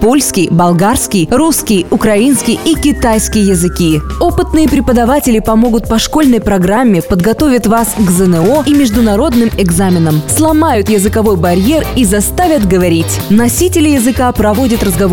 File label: cool english